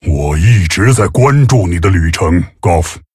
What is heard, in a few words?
Male Tauren; START Guff Runetotem; zh